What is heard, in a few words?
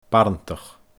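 "barantach", Scottish Gaelic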